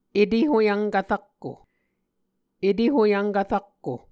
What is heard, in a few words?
"etehoyvnkv rakko", Muscogee